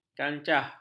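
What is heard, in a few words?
"kancah", Malay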